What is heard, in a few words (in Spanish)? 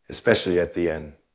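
Voice Acting, Spanish, male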